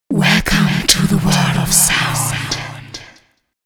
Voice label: Girl Announcing Saying Welcome To The World Of Sound